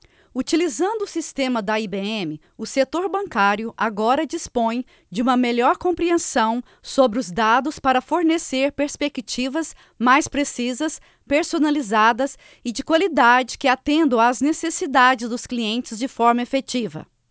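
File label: Brazilian Portuguese sample 16 kHz; watson stt; bluemix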